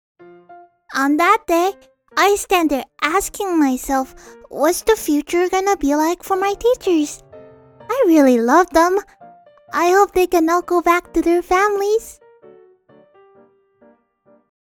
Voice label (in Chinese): 英萝莉